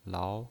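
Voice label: "lao", pinyin, Mandarin Chinese, second tone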